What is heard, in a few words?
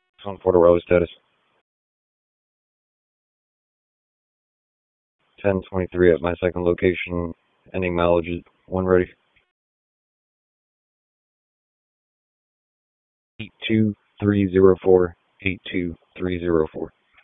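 Police Southwest District Dispatch, p25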